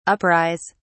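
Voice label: "uprise", British English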